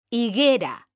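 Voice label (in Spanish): "HIGUERA" Spanish, female; mekanta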